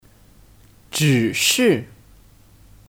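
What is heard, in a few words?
"只是", Chinese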